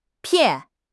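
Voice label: "pian4", Chinese; HSK4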